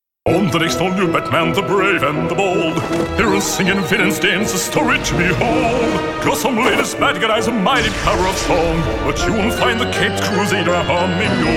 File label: Promo; Cartoon Network Promo; Batman Musical